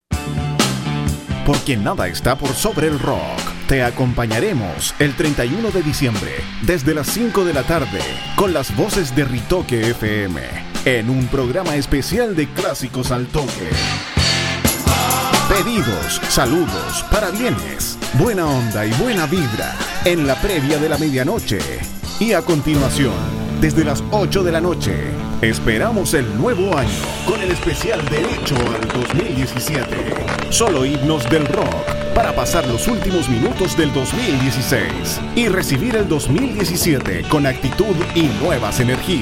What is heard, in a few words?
promo; ritoque 2016